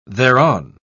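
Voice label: "thereon", British English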